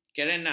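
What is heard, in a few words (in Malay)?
"kerenah", Malay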